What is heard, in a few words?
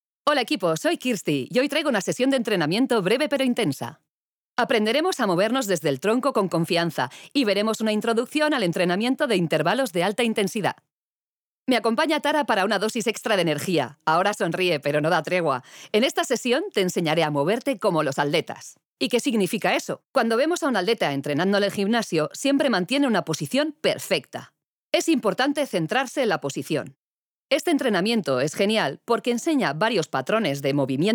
WORKOUT DUBBING